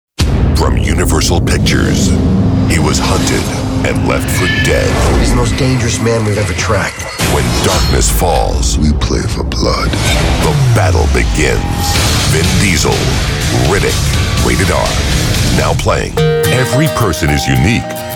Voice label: Trailers

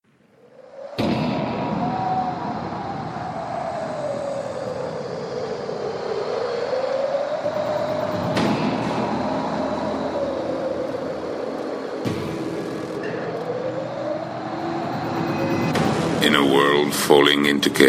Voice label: Video Trailer for a graphic novel

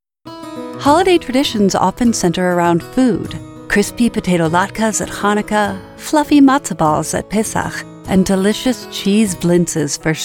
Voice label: Jewish VO

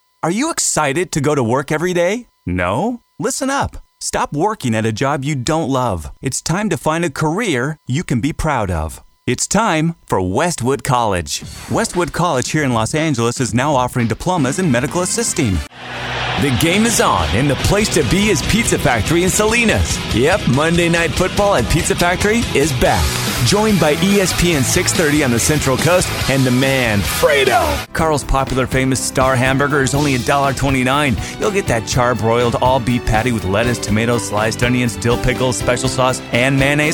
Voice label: Radio Commercial

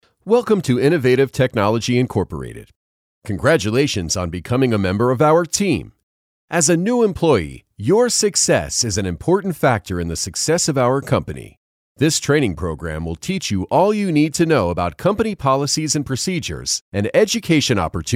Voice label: Corporate and Real Estate Narration

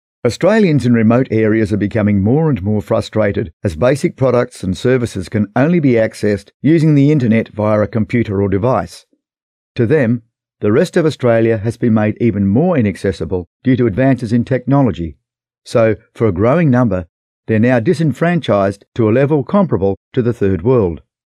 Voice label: Australian outback narration

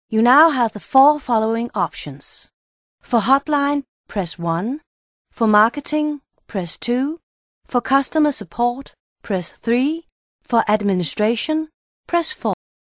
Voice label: Voicerespons english

